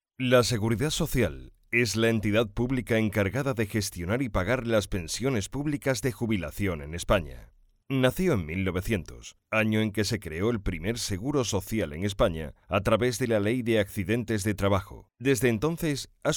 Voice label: spanish e-learning